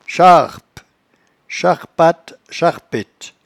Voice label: "charpe", patois jura

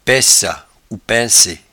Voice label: "paissatpainses", patois jura

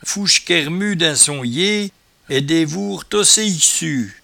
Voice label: "fouechequetremue", patois jura

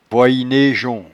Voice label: "voiyenejon", patois jura

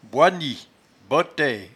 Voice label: "boini", patois jura

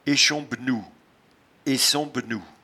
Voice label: "echombnou", patois jura

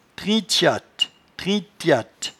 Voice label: "trintchatte", patois jura

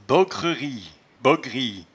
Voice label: "bogrerie", patois jura

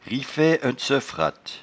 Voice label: "riffaieenneseuffratte", patois jura

